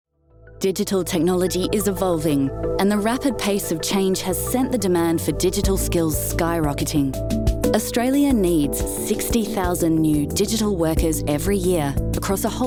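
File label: Corporate Explainer Australian; DSO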